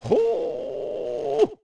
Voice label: Impressed; Tingles Father; Zelda; MM

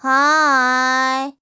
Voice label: Bored Hi; Skyward Sword; zelda

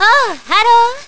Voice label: Woman "Oh Harro"

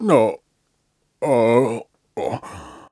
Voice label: Lectured; Groose lectured by Zelda; Skyward Sword

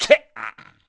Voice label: Fishman Snarky; Fishman being snarky

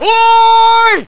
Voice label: Man Hoooi; Zelda; MC

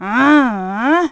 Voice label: mutter

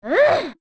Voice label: Sisters Annoyed; Rosa Sisters annoyed

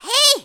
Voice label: "Hey" Outside

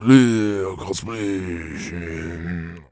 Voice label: Mumble; Zelda

